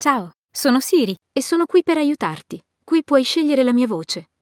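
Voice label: Italian, Italy; iOS Sounds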